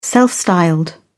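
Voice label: "self-styled", British English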